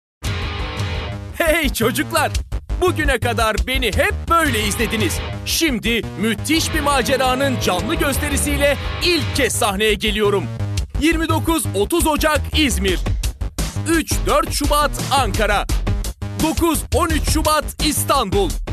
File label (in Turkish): genç erkek